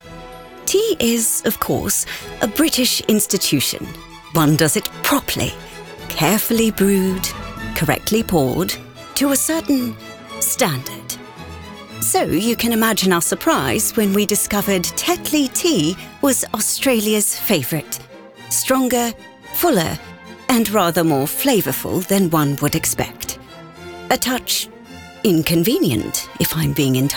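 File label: VO Commercial Australian; Tetley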